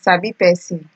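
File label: "sabi person", Nigerian Pidgin, female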